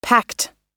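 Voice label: "packed", American English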